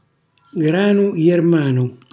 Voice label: "granujermanu", Calabrese; segale; cucina calabrese; Glossario